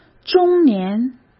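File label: "中年", Mandarin Chinese; hsk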